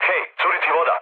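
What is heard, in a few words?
Serbian damaged water mood low, speech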